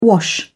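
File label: "wash", British English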